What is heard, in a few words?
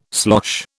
"slosh", British